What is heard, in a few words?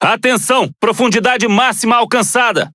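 Brazilian Portuguese Speech Alarms Sub Max Depth; World Of Warships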